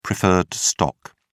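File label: "preferred stock", English, British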